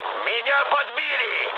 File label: aircraft damaged, mood low, speech ru